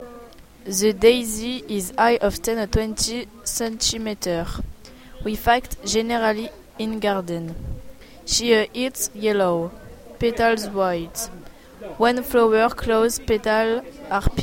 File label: texte anglais